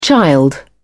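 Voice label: "child", British English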